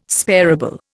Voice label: "sparable", Indian